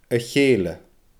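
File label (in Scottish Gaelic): "a cheeile", Scottish Gaelic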